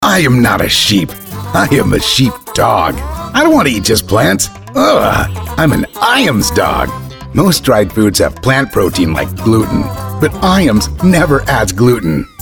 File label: Commercial Spot; IAMS